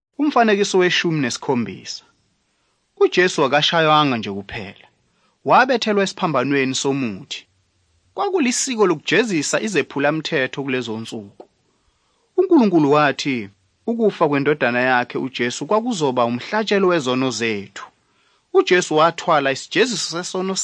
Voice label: zulu bible; good news